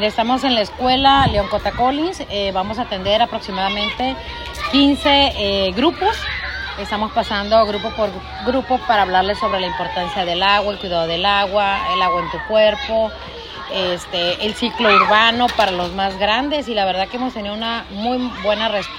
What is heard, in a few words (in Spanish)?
RADIO INSERT